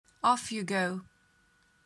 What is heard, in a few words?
off you go pronunciation; Best English Nitra